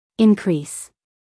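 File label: "increase", American English, female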